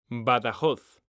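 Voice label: "BADAJOZ", Spanish, male; mekanta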